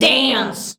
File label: "dance", shouts; Best Service; Hyper Dance